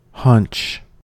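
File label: "hunch", US English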